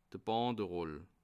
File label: "banderoll", alsace